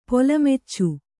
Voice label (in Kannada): "ಪೊಲಮಚ್ಚು", Kannada